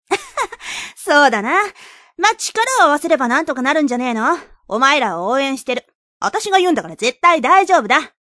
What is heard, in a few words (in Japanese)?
気風のいい姉御系; ホシノハナ; 太陽; 「そうだな。まぁ、力を合わせれば何とかなるんじゃねーの？ お前らを応援してる